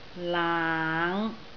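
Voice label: "laang5", Cantonese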